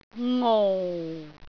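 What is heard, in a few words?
"ngou4", Cantonese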